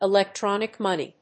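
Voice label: "Electronic Money", English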